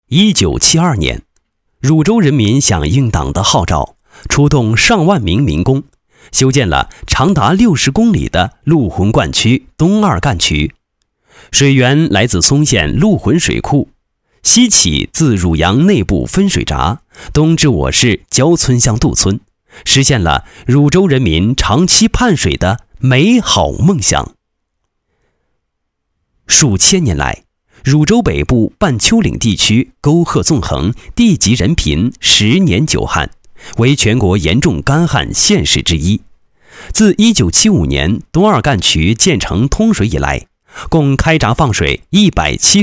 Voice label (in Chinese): 男声 工作纪实 配音; 陆浑灌区汝州市管理局工作纪实